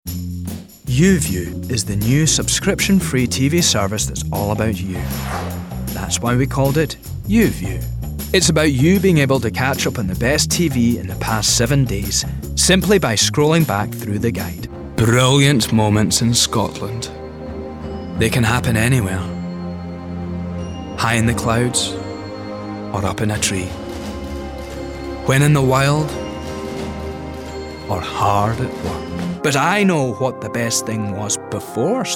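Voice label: english scottish male commercial; voice demos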